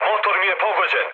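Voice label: Serbian damaged engine mood med, speech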